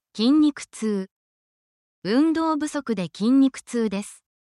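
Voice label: Japanese word, essential Japanese phrases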